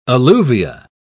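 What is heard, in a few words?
"alluvia", British English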